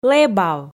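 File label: "лэбав", Komi, female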